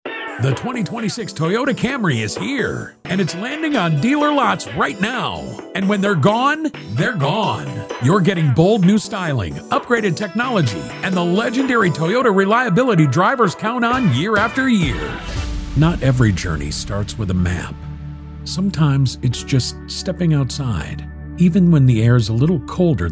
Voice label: Commercial, Male Voice Actors - Commercial Voice Over